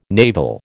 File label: "naval", American